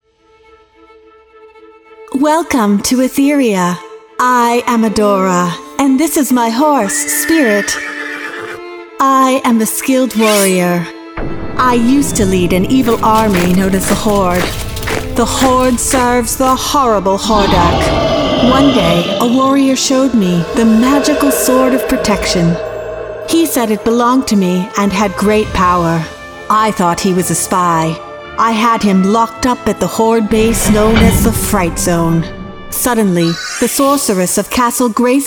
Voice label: Audiobook